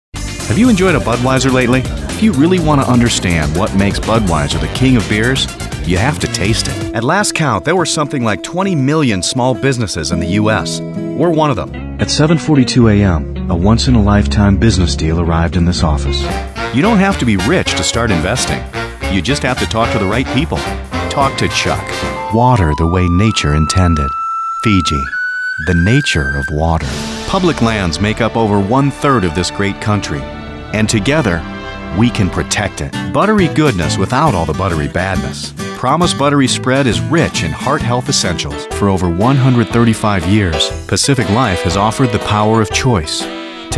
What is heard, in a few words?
commercial; FLIPCRUMMER